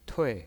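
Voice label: "tui", pinyin voice, fourth tone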